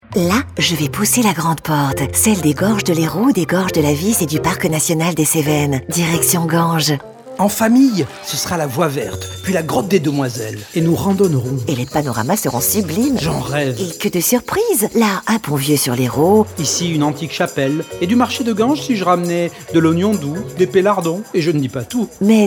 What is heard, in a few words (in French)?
spot radio; ganges cevennes mediterranee; fb herault